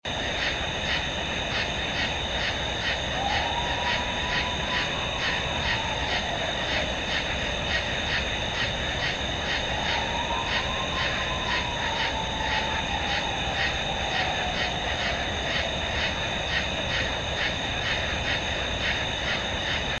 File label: weird vocal